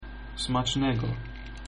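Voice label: bon appetit, Polish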